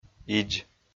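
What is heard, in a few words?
"идз", Komi, male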